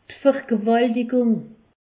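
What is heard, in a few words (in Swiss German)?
"vergewaltigung", Alsatian; medecine; viol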